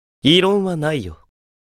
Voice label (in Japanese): エモーション（はい）; 異論はないよ。